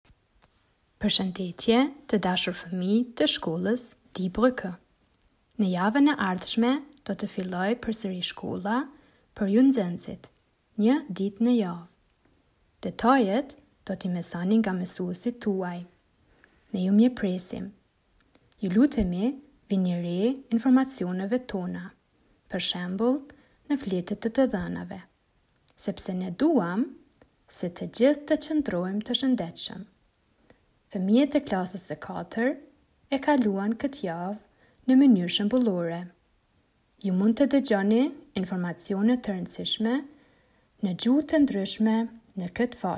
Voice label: Albanisch

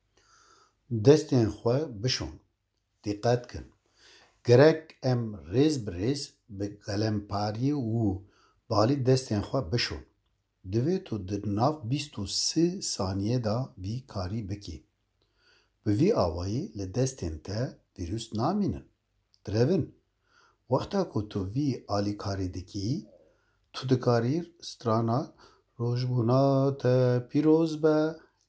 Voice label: Kurdisch

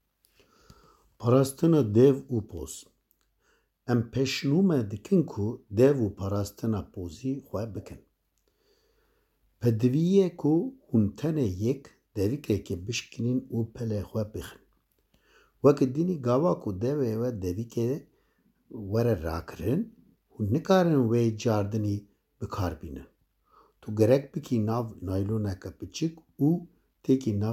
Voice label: Kurdisch

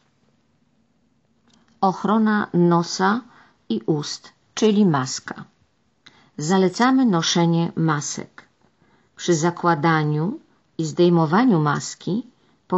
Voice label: Polnisch

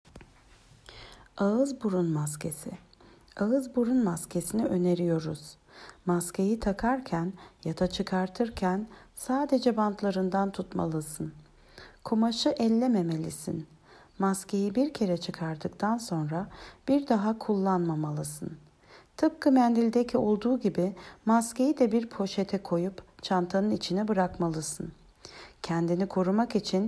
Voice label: Türkisch

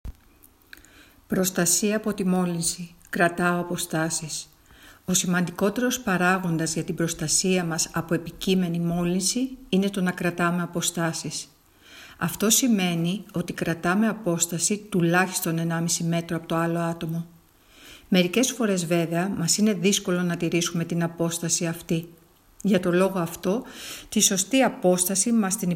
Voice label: Griechisch

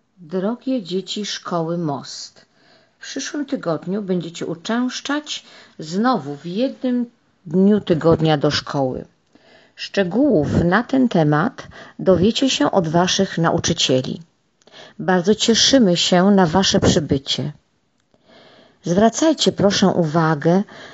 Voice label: Polnisch

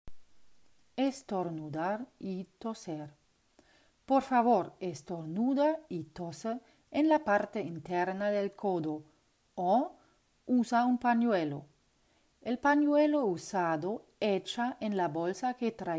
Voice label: Spanisch

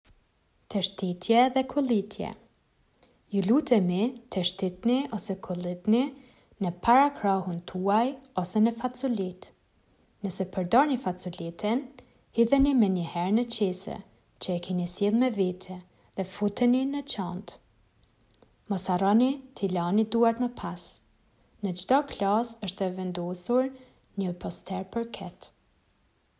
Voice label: Albanisch

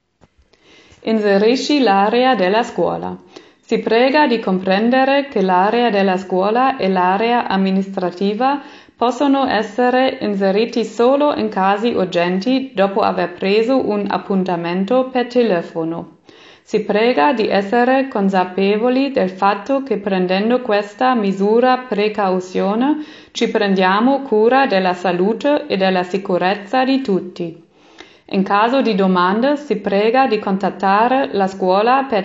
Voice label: Italienisch

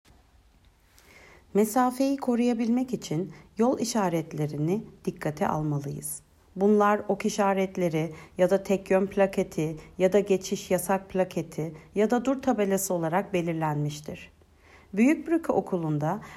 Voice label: Türkisch

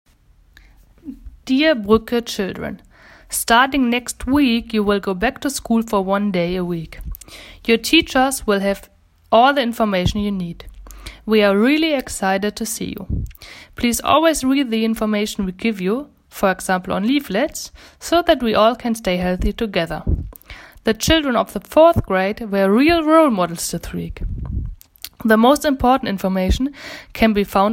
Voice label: Englisch

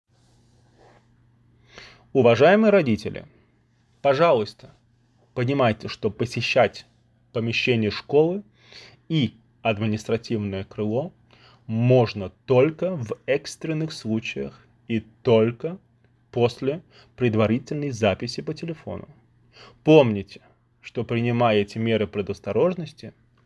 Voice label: Russisch

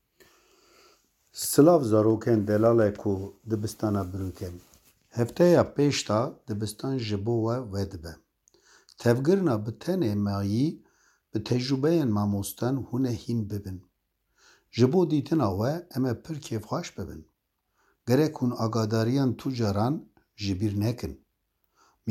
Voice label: Kurdisch

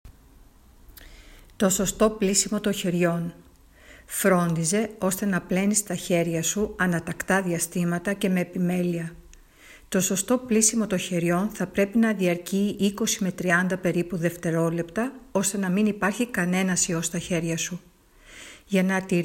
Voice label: Griechisch

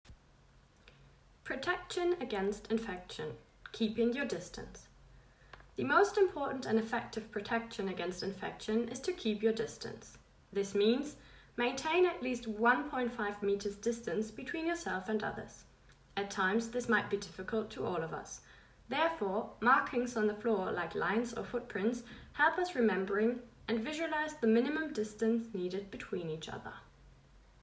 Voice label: Englisch

